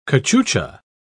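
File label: "cachucha", British English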